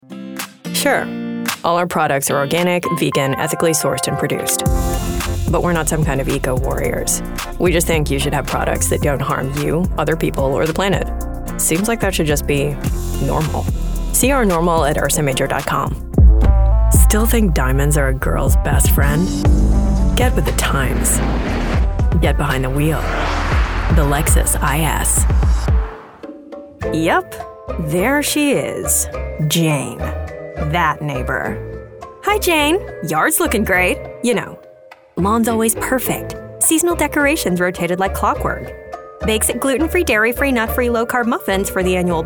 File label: General American - Commercial